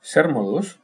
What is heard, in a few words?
how are you, basque